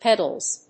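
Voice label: "PEDALS", English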